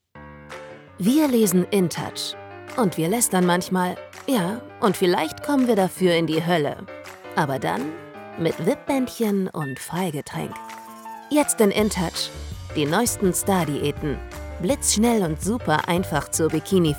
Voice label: Werbung; Intouch

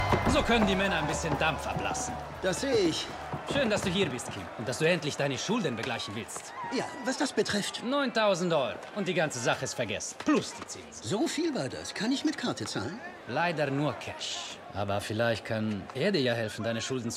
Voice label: Spion, Lip-Sync (Synchron), German, Akzent: Italian; Spion 2

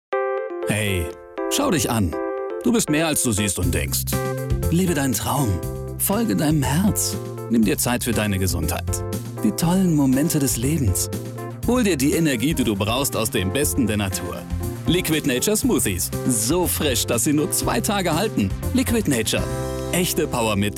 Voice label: Funk Solospot

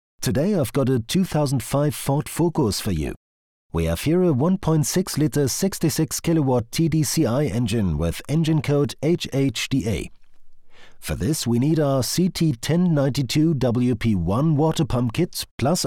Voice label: englisch tutorial motoren flottes tempo

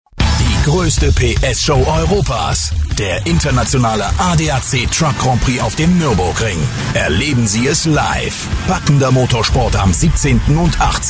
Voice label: Radiowerbung; Truck GP Nürburgring

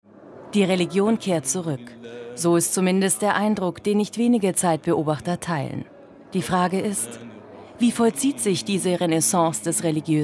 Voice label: Dokumentation; arte

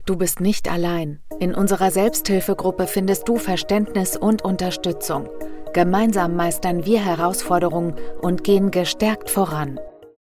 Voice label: Werbung einfuehlsam

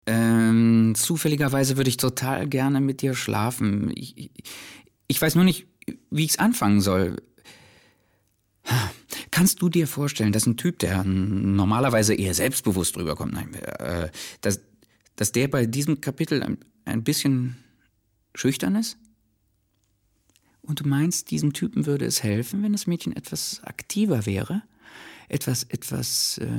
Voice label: synchron; LOFT VOICES

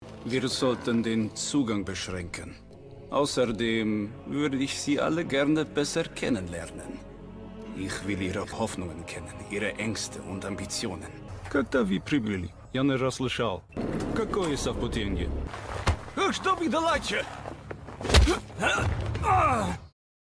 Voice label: Russe, Game, German; Call Of Duty: Black Ops Cold War - "Imran Zakhaev; LOFT VOICES

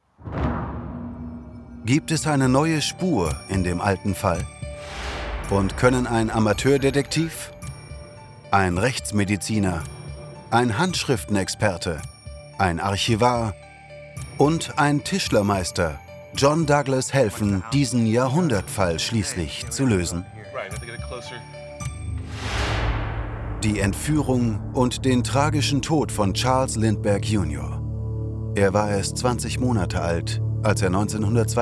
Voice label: klassisch; lindbergh

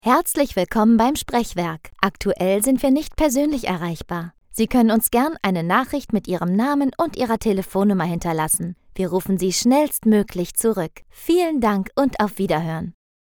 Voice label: Telefonansage Anrufbeantworter

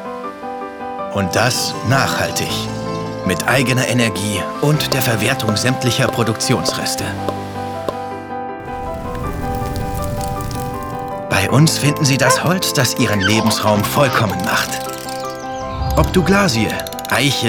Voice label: DEUTSCH MALE Imagefilm Massivholzdielen; Dipro; LOFT VOICES

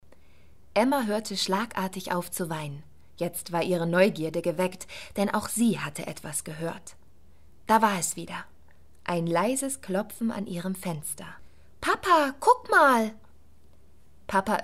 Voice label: Werbung Familie; Eucerin

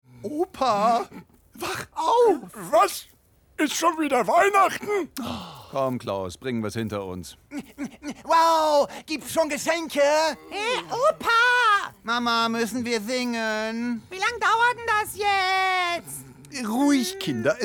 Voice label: singende Weihnachtskugeln; LOFT VOICES

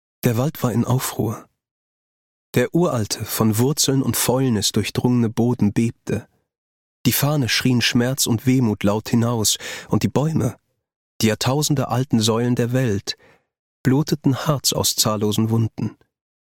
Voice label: HOeRBUCH Intro; Myrk; LOFT VOICES

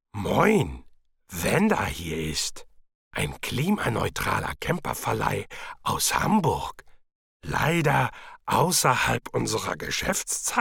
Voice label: Telefonansage; Camper Yoda

